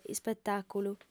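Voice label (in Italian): "ispetàculu", lingua sarda, fem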